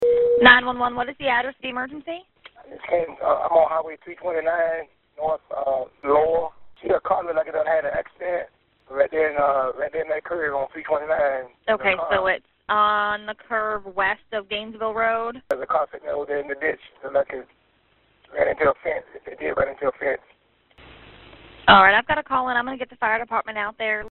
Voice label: 911 call